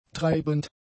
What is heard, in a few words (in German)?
"treibend", German; adjectives